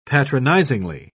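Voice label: "patronizingly", British English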